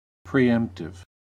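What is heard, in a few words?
"preemptive", US English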